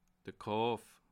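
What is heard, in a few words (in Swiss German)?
"kauf", Alsatian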